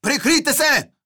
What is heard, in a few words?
neutral